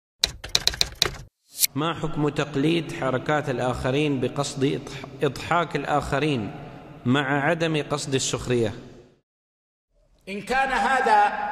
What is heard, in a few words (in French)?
imiter les gens pour rire